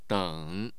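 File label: "deng", Chinese, third tone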